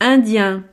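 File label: "indien", French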